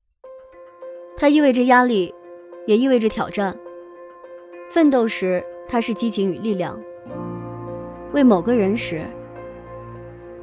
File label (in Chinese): 素人 广告 独白; 奔驰